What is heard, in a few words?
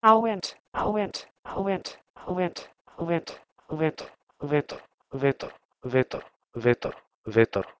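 Proto-Indo-European h2weh1nt to Macedonian vetar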